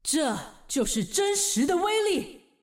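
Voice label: Traditional Chinese; 秩序之光（守望先锋）/终极技能; 這，就是真實的威力！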